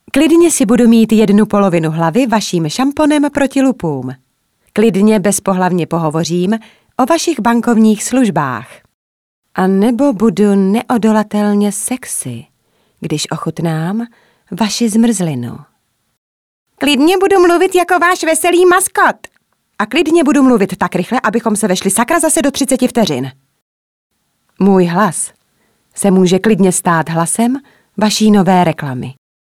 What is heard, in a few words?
Voice Casting